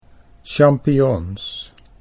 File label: "champignons", Dutch